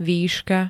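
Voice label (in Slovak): "výška", Slovak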